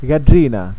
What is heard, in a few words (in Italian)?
"gaddrina", pronuncia, dizionario carigliaro